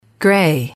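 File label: "grey", English, female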